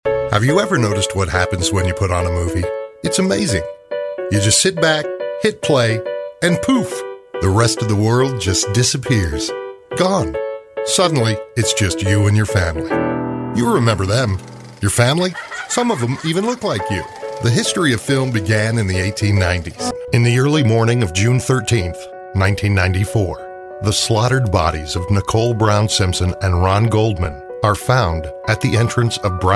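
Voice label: American English, Narrative; GoLocalise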